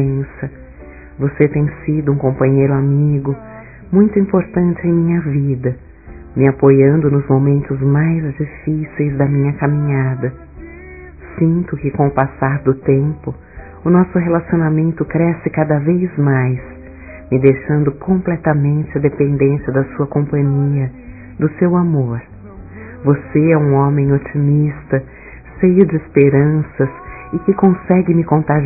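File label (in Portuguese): ANIVERSÁRIO NAMORO, female; Dia Dos namorados; Telemensagens 2017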